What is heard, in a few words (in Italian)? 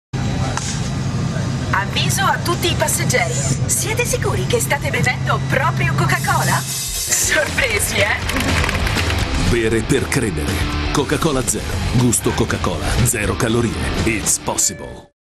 spot; coca cola zero